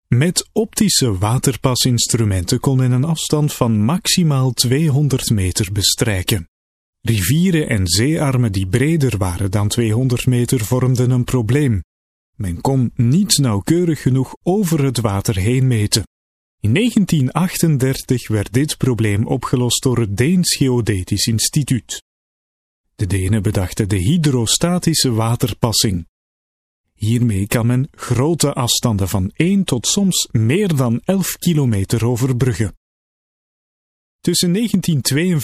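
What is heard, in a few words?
flemish male e-learning; dutch; voice demos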